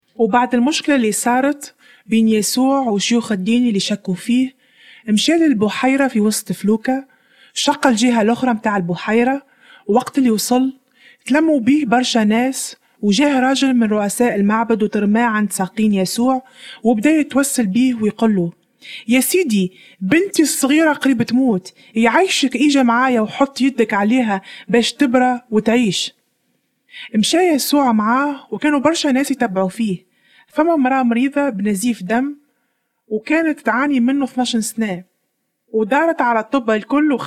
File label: tunisian arabic; jesus heals woman and raises girl